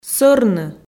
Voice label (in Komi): "сӧрны", Komi